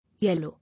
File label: "Yellow", English